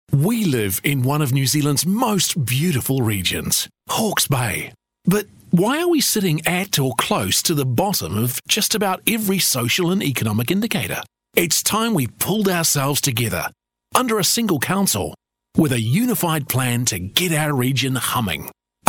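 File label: English Australian, male, narration; voice demos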